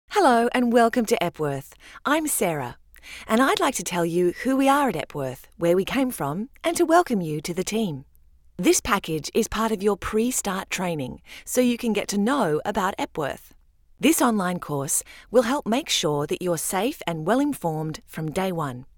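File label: English Australian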